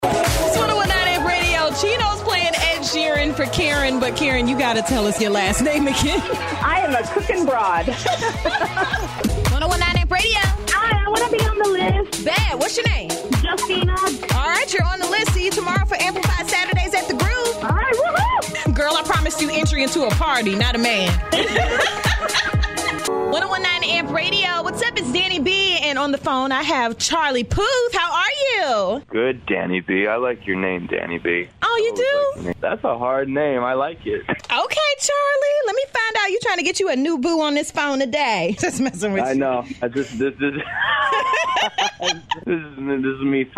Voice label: Pop Rhythm Aircheck